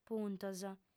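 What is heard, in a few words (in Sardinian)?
"puntos", female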